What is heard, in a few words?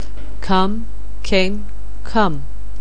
"come", anglais